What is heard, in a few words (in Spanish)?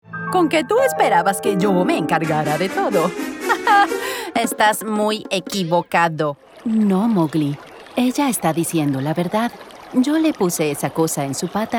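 Spanish cartoon animation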